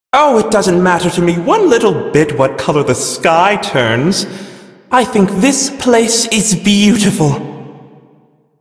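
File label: Male Brisk en, ambient dialog; Warframe; Duviri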